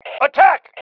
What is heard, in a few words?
ATTACK, MALE